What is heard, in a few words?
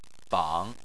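"bang" Chinese, third tone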